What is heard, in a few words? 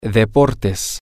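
sports, Spanish, male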